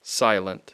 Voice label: "silent", English